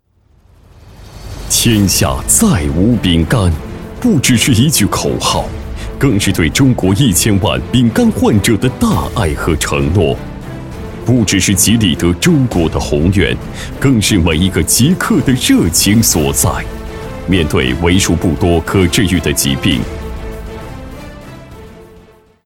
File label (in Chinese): 男 丙肝 产品; 吉利德